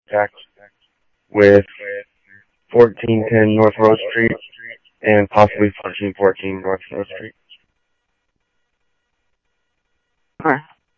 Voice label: Tempe Police, scanner audio; SimulcastF-Tempe